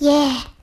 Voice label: "yeah" kid